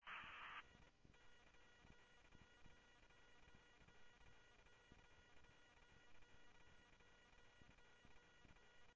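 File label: Sheriff Lake Patrol, scanner audio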